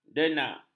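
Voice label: "denak", Malay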